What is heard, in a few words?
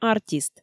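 "артист" Komi, female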